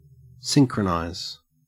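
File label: "synchronize", Australian English; pronunciation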